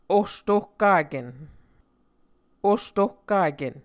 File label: "ostohkaken", Muscogee